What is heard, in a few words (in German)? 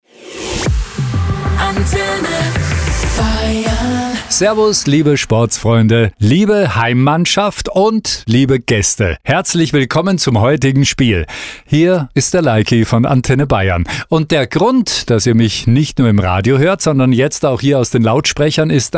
durchsage